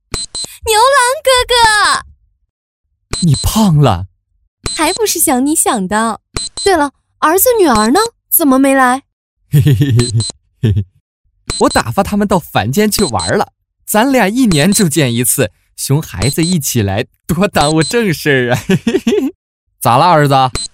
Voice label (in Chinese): 男 角色 银行 FLASH 短片; 九台农商银行FLASH短片